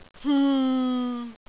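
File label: "hng6", Cantonese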